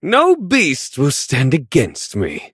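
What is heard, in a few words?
level up, English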